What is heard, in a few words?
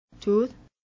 "tooth", Singapore accent